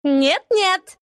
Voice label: deny, ru; dota2